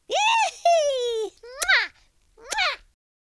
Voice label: happy, Cowgirl; speech